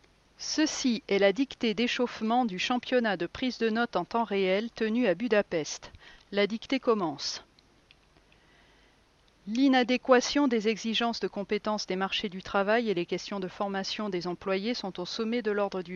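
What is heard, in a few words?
Practice French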